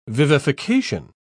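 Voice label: "vivification", British English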